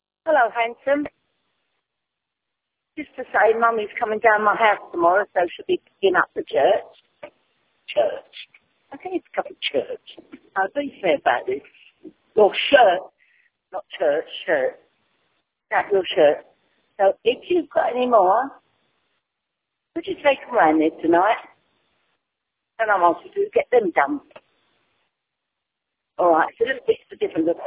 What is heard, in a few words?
voicemail